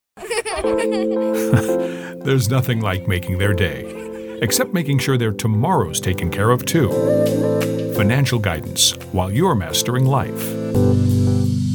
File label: COMMERCIAL, voiceovers; reel